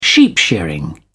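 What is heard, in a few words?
"sheep shearing", British English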